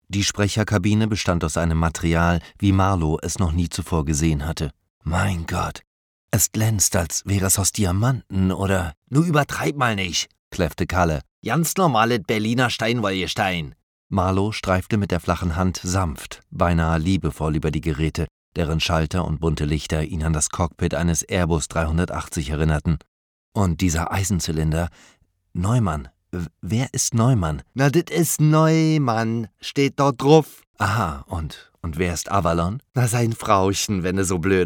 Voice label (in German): studio demo; Berlin; Muttersprache Deutsch, Englisch, Englisch/UK